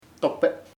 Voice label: ripe or dead (animals people fruit) maduro, chamacoco